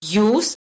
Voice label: "jus", Latvian

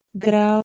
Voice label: "gwreh2" PIE

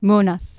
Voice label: "monath", Anglo Saxon

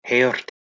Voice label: "heort", Anglo Saxon

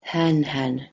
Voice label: "hanhan", Proto-Germanic

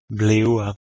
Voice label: "bhleh3e" PIE

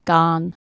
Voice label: "gan", Anglo Saxon

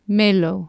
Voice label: "melu", Anglo Saxon

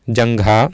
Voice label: "janghaa", Sanskrit

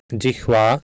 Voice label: "jihvaa", Sanskrit

